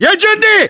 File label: rank private, battle chatter, english